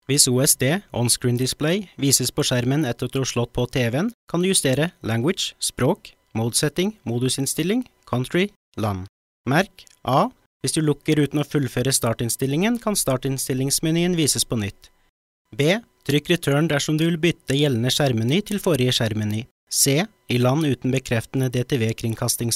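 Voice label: Norwegian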